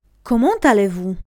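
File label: "comment allez-vous", French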